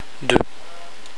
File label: "deux", french